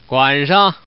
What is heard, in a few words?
Man